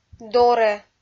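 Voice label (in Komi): "дорӧ", Komi, female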